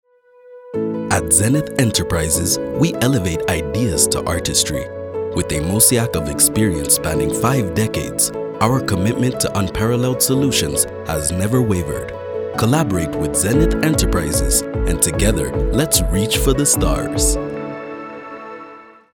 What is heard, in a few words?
CORPORATE; ENOCH ZENETH ENTERPRISES